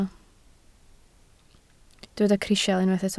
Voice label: "crisial", Welsh